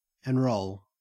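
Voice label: "enrol", Australian English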